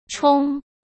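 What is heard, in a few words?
"充", Mandarin Chinese; chinese-flashcards; hsk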